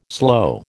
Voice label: "slow", American

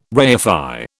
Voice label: "reify", British

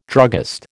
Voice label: "druggist", American

